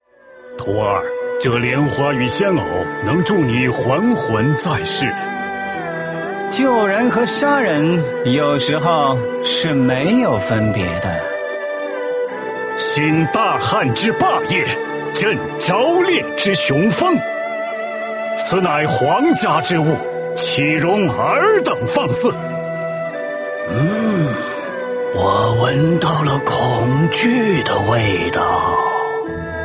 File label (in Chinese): zhonglaonian